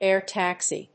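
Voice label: "air taxi", English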